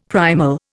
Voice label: "primal", Indian; Dictionary

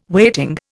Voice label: "weighting", Indian

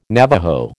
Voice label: "Navajo", American

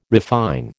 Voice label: "refine", American

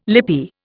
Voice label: "lippy", Indian

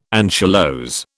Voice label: "anchylose", British

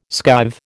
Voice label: "skive", American

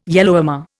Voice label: "Yellowhammer", Indian

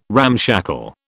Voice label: "ramshackle", British

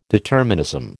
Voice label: "determinism", American

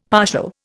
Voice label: "partial", Indian; Dictionary

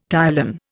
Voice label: "ptyalin", Indian; Dictionary

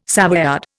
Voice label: "Savoyard", Indian

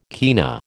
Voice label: "kina", American

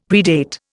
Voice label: "predate", Indian; Dictionary

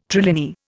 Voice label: "trilinear", Indian

